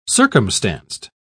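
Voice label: "circumstanced", British English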